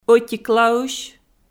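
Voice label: "ӧтиклаысь" Komi, female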